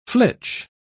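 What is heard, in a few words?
"flitch", British English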